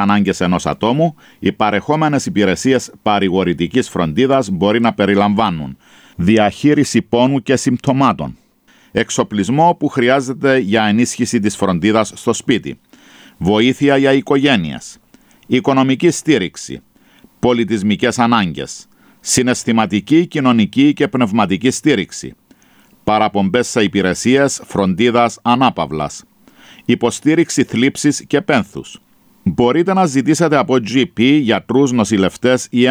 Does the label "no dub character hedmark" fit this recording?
No